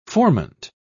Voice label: "formant", pronounce

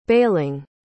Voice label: "bailing", pronounce

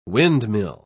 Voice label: "windmill", pronounce

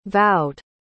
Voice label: "vowed", pronounce

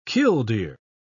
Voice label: "killdeer", pronounce

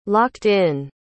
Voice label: "locked-in", pronounce

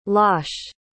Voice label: "losh", pronounce

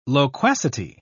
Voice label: "loquacity", pronounce

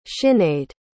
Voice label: "sinead", pronounce

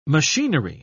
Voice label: "machinery", pronounce

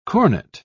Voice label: "cornet", pronounce

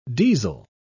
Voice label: "diesel", pronounce

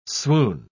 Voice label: "swoon", pronounce

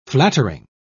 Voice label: "flattering", pronounce

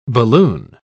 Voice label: "balloon", pronounce

